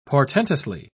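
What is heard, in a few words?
"portentously", British English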